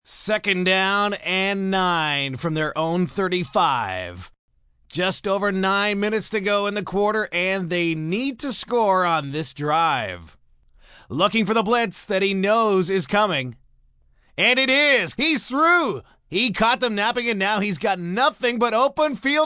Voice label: Commentary Football, male commentator, football, sports, play-by-play; Broadcast; human voice speaking; Voice Box